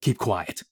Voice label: silence; Escape from Tarkov